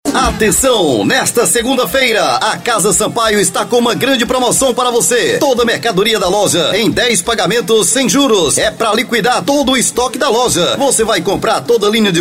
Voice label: locutor jovem padrao

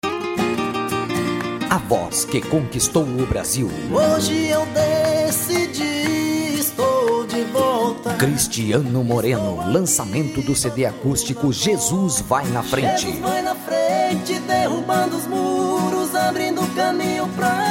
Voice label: vinheta profissional para radio

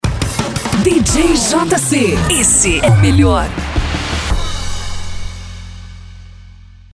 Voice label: voz feminina

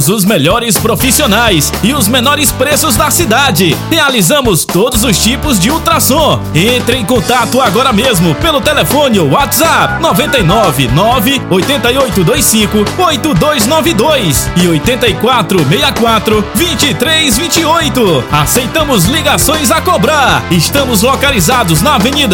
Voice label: spot espaco saude ultrassonografia; studio89audio

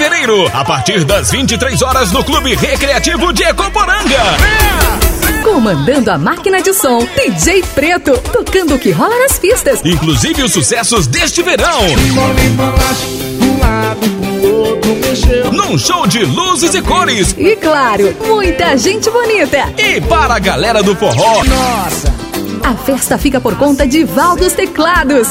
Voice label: chamada aquecimento carnaval

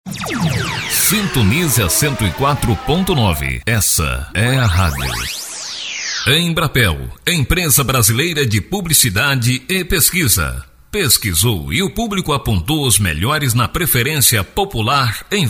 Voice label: audio de propaganda

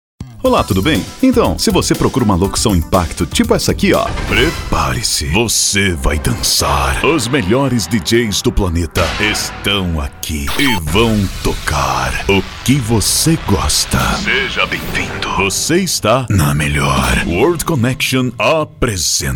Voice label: locutor

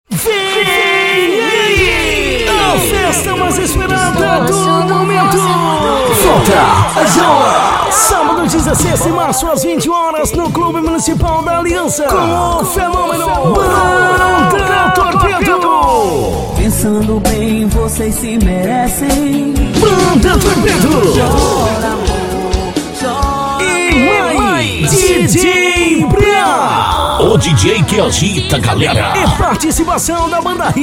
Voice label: locutor chamada; aliana

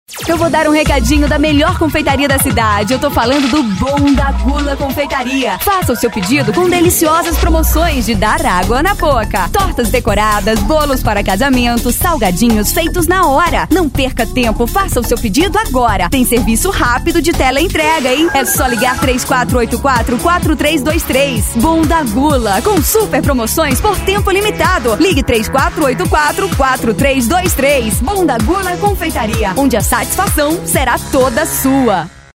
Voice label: locutora

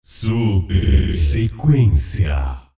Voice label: locutor super sequencia

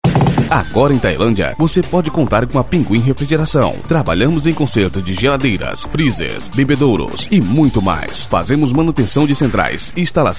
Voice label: locutor refrigeracao; pinguin

